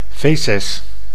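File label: "faeces", Dutch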